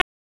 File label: Police North District Tac 1, p25; wake p25